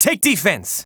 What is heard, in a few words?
VO en